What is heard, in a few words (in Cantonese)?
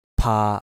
"paa3", Cantonese